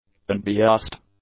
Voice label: "unbiassed", English; adjectives

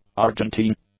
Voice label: "argentine", English; adjectives

